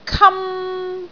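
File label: "kam1", Cantonese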